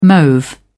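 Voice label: "mauve", British English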